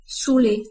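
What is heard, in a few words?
"saouler", French pronunciation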